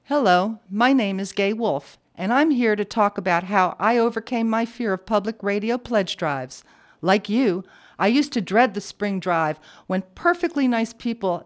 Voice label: promo; KBBI